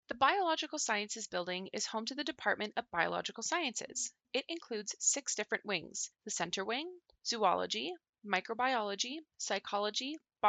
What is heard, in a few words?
English; Biological Science (BS); NSO Tour